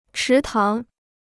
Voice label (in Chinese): "池塘", Chinese